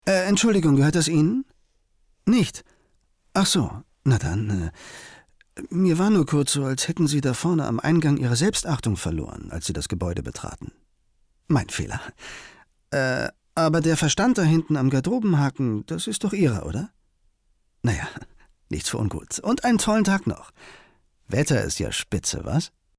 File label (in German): synchron